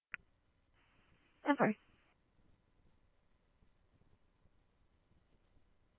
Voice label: Scottsdale Police, scanner; SPD - PD1; RWC; H-Scottsdale